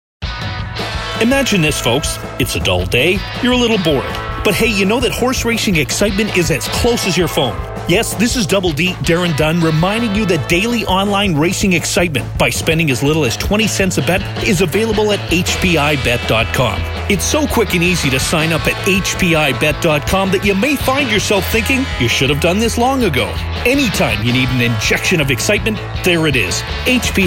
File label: radio commercial; tsn